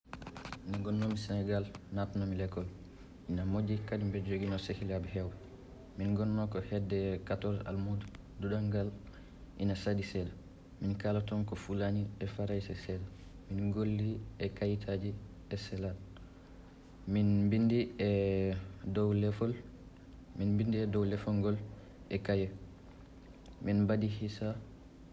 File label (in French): peul